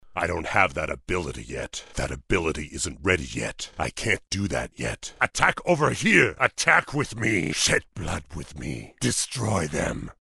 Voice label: ork golos; iz warcraft

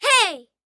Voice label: zvuk hey detskiy

